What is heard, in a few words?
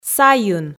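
"сайын", Komi, female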